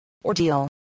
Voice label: "ordeal", English voice